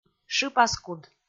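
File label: "шыпаскуд", Komi, female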